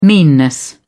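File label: "meanness", British English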